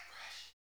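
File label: human, puppy talk; Puppy Ratchet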